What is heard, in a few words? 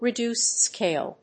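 "REDUCED SCALE", English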